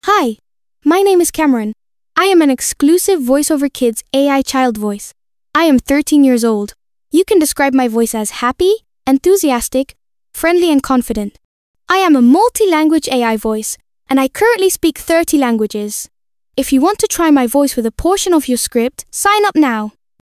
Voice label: girl13; voiceoverkids

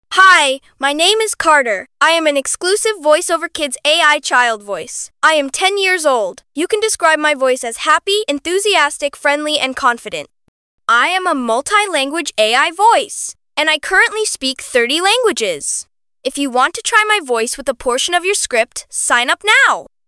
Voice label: boy10; voiceoverkids

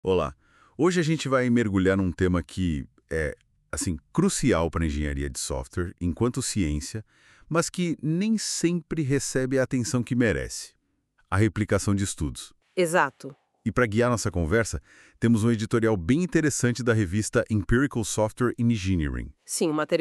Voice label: potuguese speech

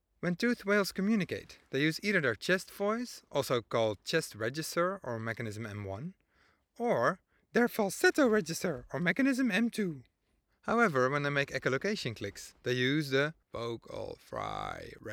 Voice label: Voice registers Human

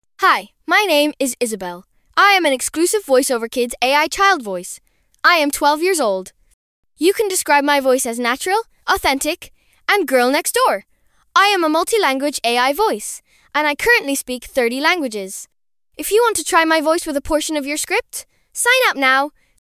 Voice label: girl12

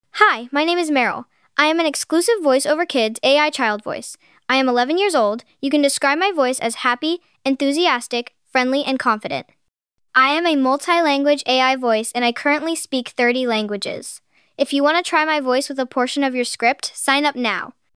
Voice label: girl; voiceoverkids

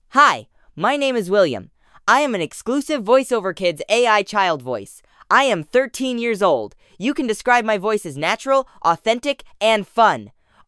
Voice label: boy; voiceoverkids

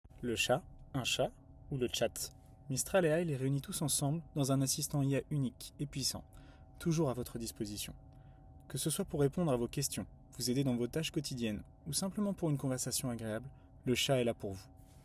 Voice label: chat fr

